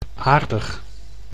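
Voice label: "aardig", Dutch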